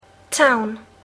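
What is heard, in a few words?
"town", English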